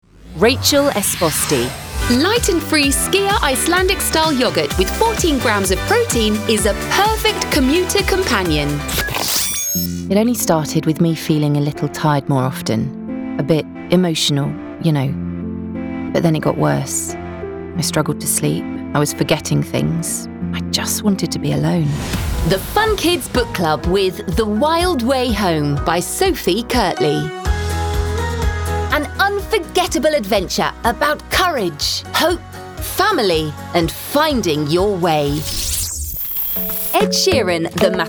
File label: voiceover commercial